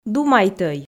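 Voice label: "думайтӧй", Komi, female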